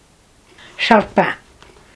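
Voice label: "charpin", Franco-Provençal, Nendaz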